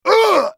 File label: dying, en US; Talon